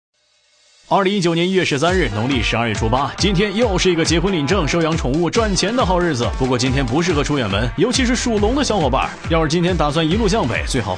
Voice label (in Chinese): 男 专题; 万万没想到类